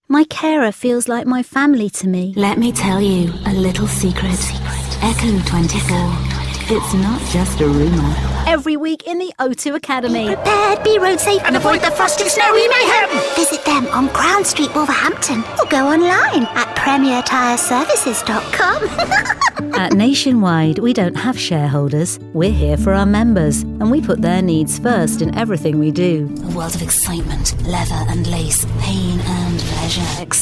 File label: UK RADIO COMMERCIALS